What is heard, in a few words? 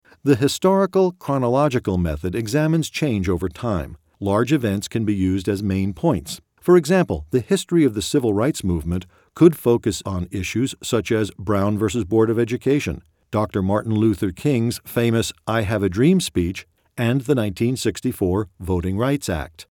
Informative Speech